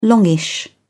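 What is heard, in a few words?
"longish", British English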